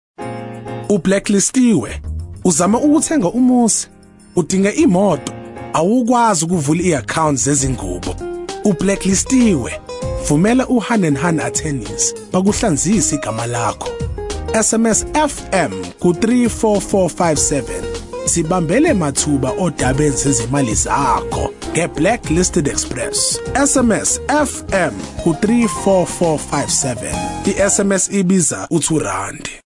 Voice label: Zulu